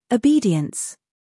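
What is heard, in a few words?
"scandal", American; obedience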